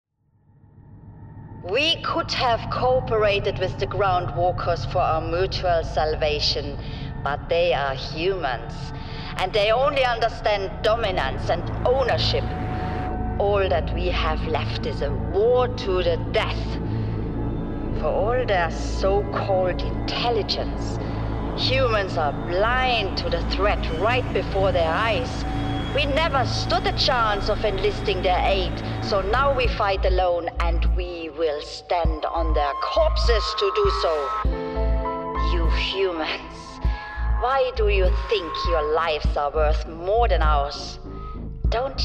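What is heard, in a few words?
English Video Game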